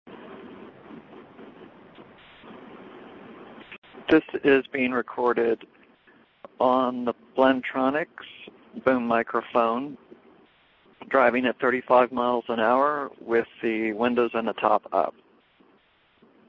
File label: Plantronics Boom Old 35mph closed, cell phone headsets